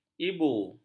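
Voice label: "ibu", Malay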